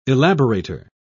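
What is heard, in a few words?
"elaborator", British English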